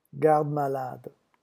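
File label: "garde-malade", French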